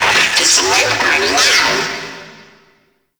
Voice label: Friendly Hack Vocal; Entrati; Necramech; NechramechHack

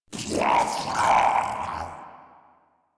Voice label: Spawn Ghoul Saw, en; Grineer Ghoul Sawman

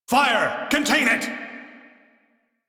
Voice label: Crew See Fire, Male; Dialog; en; Warframe; Railjack Crew; Arbiters Hexis

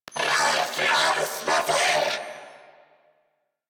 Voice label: Player Spotted, Female; en; Warframe; New War; Narmer Grineer

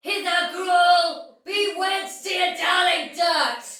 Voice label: See Player Soldier Female, Battle; Dialog; Sinecure

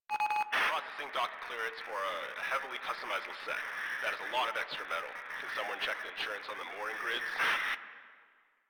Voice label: Announcements, Radio Interceptions; Grineer Announcer

